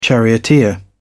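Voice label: "charioteer", British English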